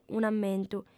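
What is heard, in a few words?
"un'ammentu", lingua sarda, female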